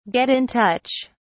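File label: "get in touch", British English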